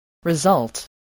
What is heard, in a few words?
"result", English